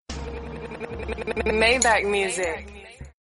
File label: music voice